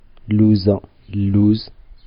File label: "amande", Darija